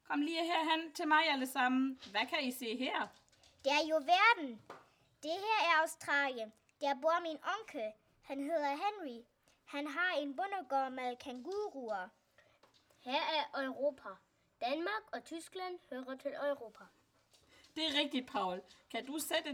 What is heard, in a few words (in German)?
Dialog